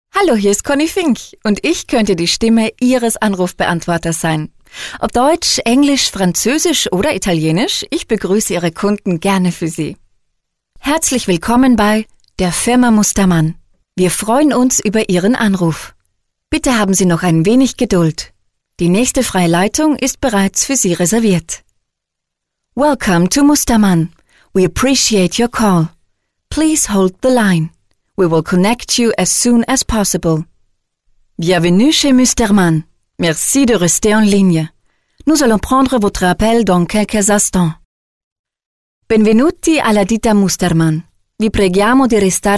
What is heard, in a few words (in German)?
Sprecher Telefonansagen